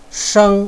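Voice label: "sheng1", Chinese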